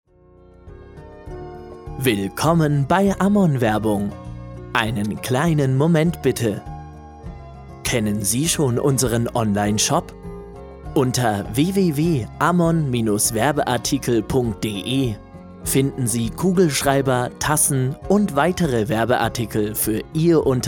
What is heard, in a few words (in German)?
Telefonansage Werbung Intern Verbinden